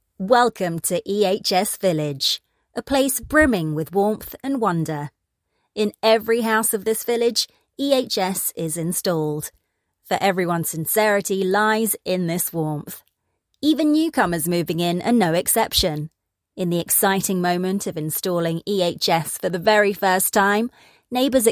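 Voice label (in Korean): 미국영어 밝음/희망; Voice actor sample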